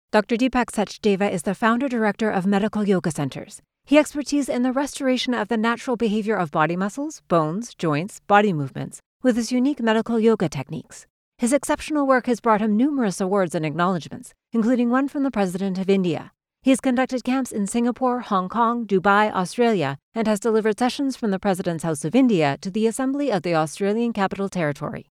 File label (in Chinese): 轻快宣传